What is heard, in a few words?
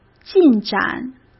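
"进展", Mandarin Chinese; chinese-flashcards; hsk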